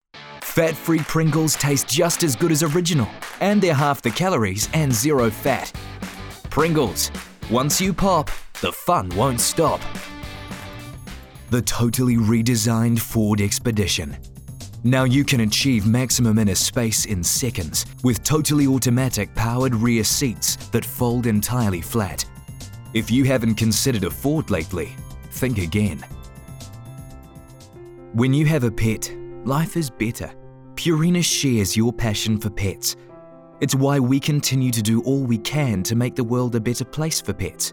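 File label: Commercial New Zealand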